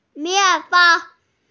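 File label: "mian4fen3", Mandarin Chinese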